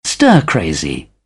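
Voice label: "stir crazy", British English; AmericanBritish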